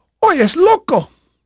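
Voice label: Spanish male, voice; Pirates Of The Caribbean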